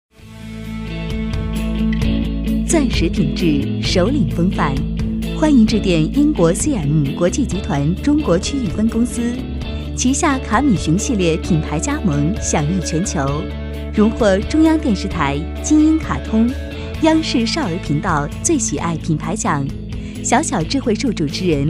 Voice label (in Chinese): 女 彩铃 钻石品质; 英国C-M国际集团中国区域分公司